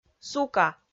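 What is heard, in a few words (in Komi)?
"сука", Komi, female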